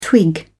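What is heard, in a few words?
"twig", English, British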